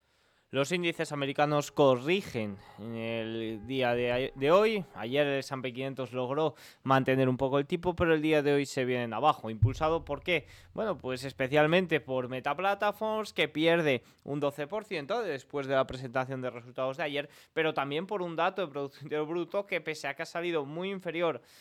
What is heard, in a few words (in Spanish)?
radio; los indices corrigen lastrados por meta y por el riesgo de inflacion